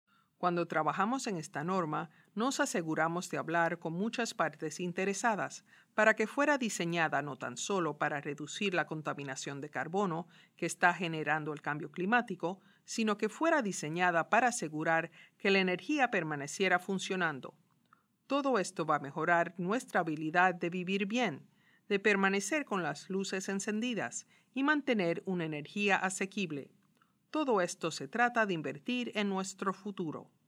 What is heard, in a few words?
spanish answer